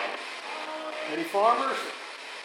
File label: voice in background